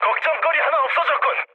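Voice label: Korean player killed enemy mood med, speech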